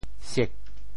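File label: "siek4", Teochew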